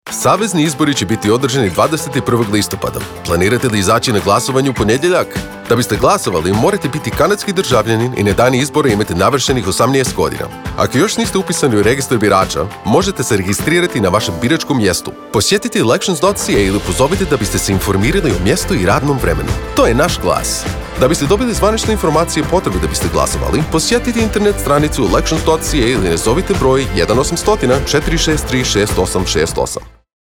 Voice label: Croatian, radio advert; Election Day